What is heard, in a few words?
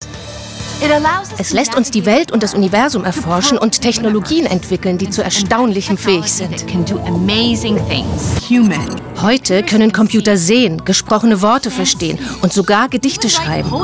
Voiceover